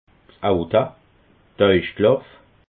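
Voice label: "aoutat", Bas Rhin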